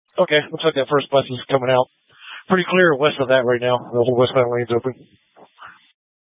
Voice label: Tactical Incidents, scanner; Grand Junction Tactical 3